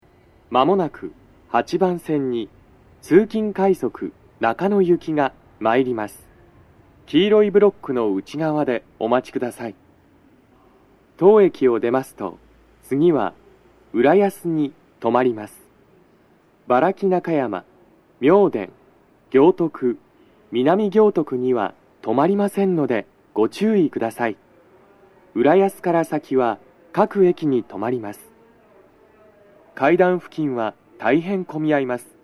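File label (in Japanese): 接近放送 男声, 通勤快速 中野行き (混雑付帯); 収録者:こたけ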